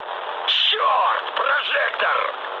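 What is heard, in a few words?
searchlite, mood med, Russian; War Thunder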